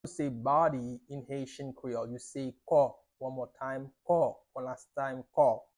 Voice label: How to say Body in Haitian Creole Ko pronunciation by a native Haitian tutor